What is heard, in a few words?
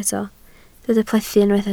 "plethu", Welsh